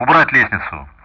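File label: Speech Sound Russian; DCS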